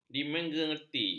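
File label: "dimengerti", Malay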